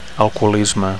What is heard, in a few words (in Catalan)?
"alcoholisme", Catalan